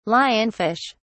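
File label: "lionfish", British English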